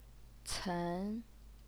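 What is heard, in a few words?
"cen", Mandarin Chinese, second tone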